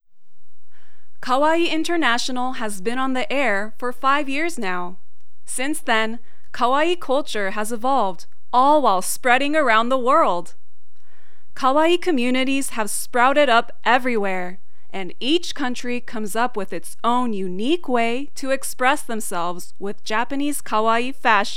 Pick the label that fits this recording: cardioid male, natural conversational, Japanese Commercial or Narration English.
Narration English